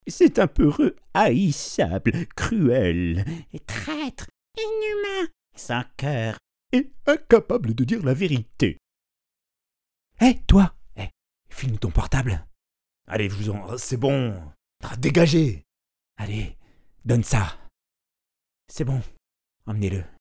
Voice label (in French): voix dialoguees